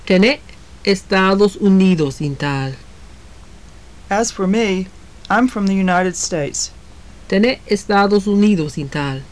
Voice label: conversation, maya; Tene' Estados Unidos in taal.; As for me, I'm from the United States.